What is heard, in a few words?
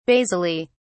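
"basally", British English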